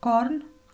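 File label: "corn", Romanian; ktuberling; tdegames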